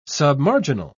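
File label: "submarginal", British English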